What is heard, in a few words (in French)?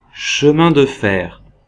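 "chemin de fer", French; phonemes; Mots francais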